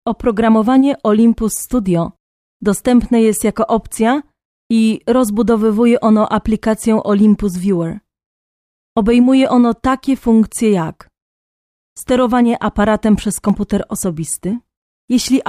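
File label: Polish, foreign accent voice overs; foreign languages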